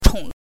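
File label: "chong" neutral tone, Mandarin Chinese pinyin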